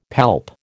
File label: "palp", American